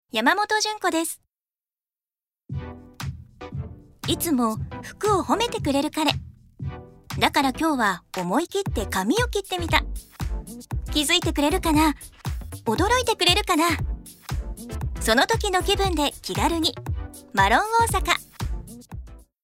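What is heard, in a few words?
commercial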